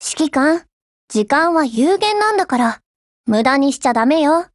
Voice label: HELLO JP; GF Alarm; K31